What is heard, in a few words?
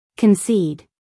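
"concede", American English, female; everyone-can-use-english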